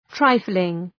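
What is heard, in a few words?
"trifling", English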